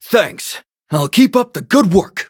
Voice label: level up, en

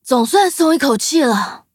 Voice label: victory, zh-hant

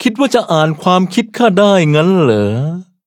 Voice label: levelup th; cookie run

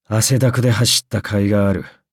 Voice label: upgrade, ja; Cookie Run

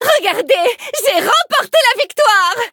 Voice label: victory, fr; Cookie Run

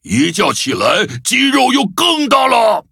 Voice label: levelup zh-hant; Cookie Run

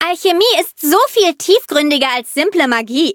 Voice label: loading, de; Cookie Run

